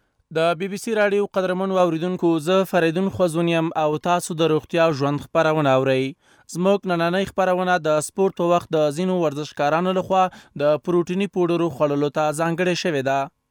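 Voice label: pashto male voice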